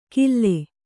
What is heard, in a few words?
"ಗಿಲ್ಲೆ", Kannada